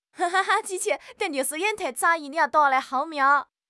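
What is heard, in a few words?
"xing4", Chinese; HSK; HSK2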